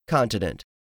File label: "continent", English; 3000 words